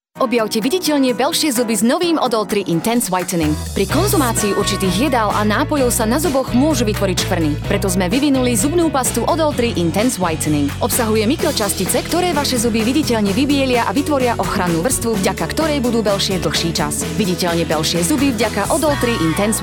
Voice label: female slovakian voice over sales

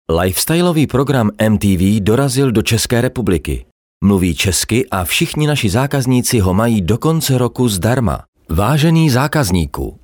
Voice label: male czech voice over narrative

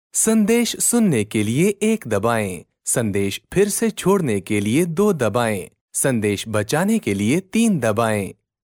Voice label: male hindi voice over talent upbeat

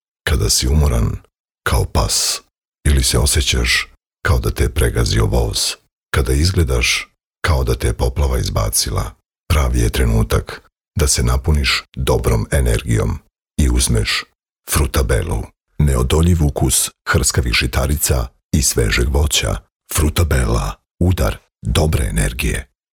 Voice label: male serbian voice over talent sales

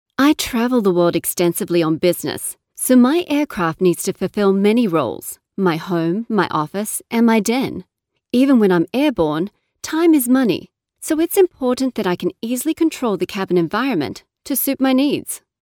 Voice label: female australian voice over character

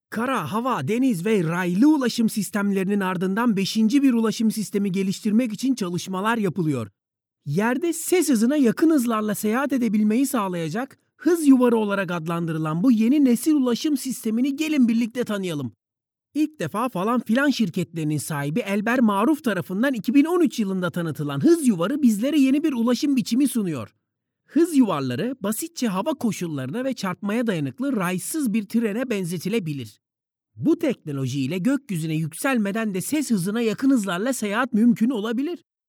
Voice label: male turkish voice over talent upbeat

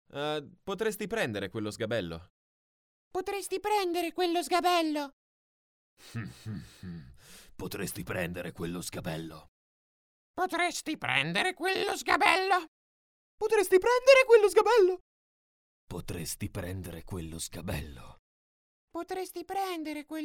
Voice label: male italian voice over talent character

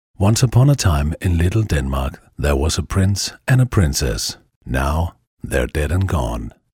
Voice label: male danish voice over talent english

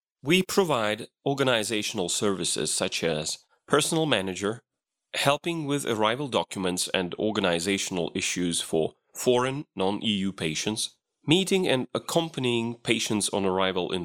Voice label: male lithuanian voice over talent english

